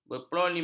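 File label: "berpolemik", Malay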